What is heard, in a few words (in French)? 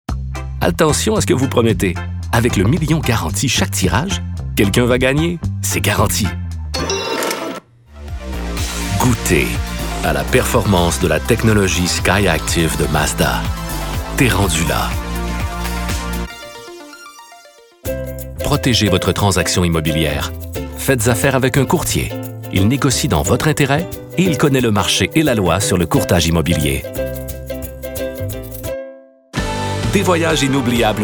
Annonceur